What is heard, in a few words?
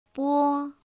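"bō", Mandarin Chinese pinyin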